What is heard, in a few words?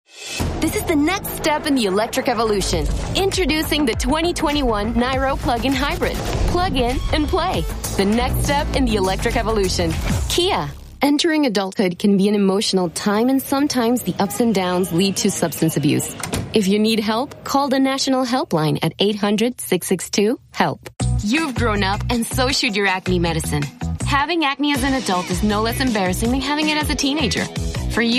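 FEMALE VOICE American English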